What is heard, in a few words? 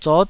"zot9", taiwanese